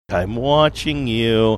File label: saying im watching you sing songy